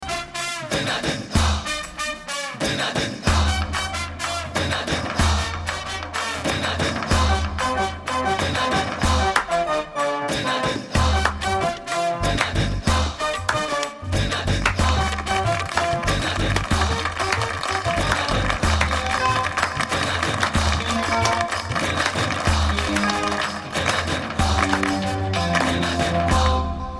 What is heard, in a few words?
Dialogue Marathi Ringtone